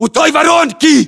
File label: landmark near crater, ru; localized english